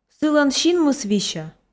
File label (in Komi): сылӧн синмыс висьӧ, f